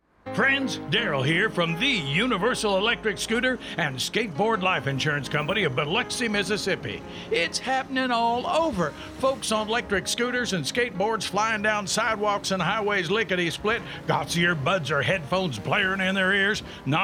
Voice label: PSA; Universal Scooter and Statboard Life